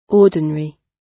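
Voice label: "ordinary", British English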